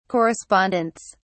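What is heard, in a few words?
"correspondents", British English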